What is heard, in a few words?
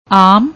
"aam", Punjabi